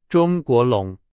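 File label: Chinese, example sentence; hsk3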